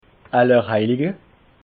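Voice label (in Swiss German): "allerheilige", Alsatian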